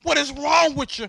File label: what is wrong with you, male; questions